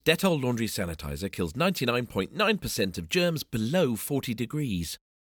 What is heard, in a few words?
male english commercial; dettol